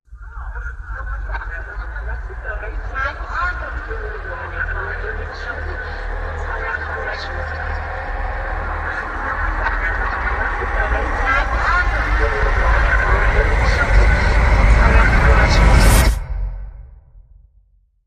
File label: Vengeful Whispers Talking Rise